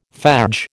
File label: "fadge", American